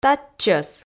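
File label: "татчӧс", Komi, female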